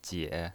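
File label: "jie", third tone, pinyin voice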